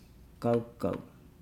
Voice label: "bathe shower swim", Nukuoro

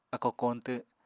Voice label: "akó koñtw", Apatani

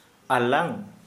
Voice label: Santali tongue

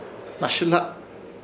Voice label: "Hnasɨhla", Ishir Chamacoco

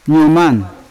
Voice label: "nyoman" canoe, Yawa

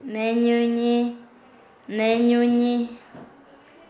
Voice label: "hospedar", Nasa Yuwe

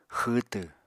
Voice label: "xwwtw", Apatani

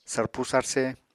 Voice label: "sarpu sarse", Apatani

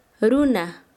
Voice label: Chanka, quechua; persona

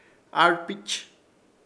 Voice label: "ARPICH", Ishir Chamacoco

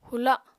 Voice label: "hula", Apatani

